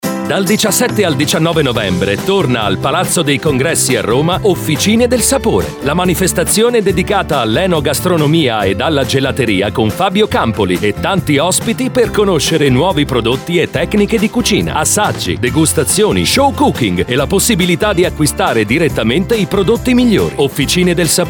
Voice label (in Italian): spot radio